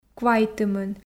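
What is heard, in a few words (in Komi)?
"квайтымын", Komi, female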